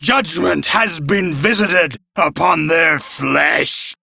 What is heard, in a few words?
Crew Enemy Defeat, Male